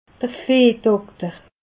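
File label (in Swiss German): "viehdokter", Alsatian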